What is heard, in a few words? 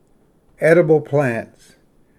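"edible plants", English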